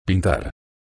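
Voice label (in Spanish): "pintar", Spanish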